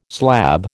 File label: "slab", American